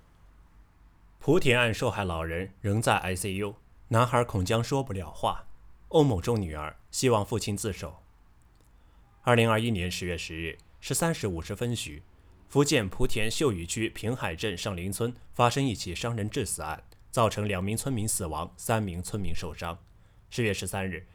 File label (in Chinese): 新闻